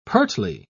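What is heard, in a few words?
"pertly", British English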